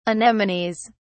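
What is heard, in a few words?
"anemones", British English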